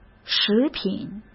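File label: "食品", Mandarin Chinese; hsk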